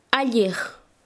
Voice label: "derecha", Quechua Chanka